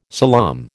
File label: "salaam", American